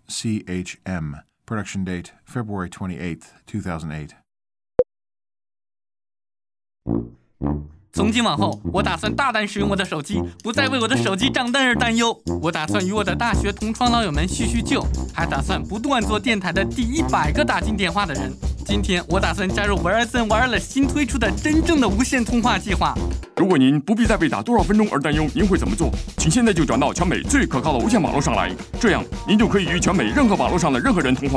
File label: MAND